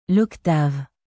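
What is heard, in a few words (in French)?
"l'octave", French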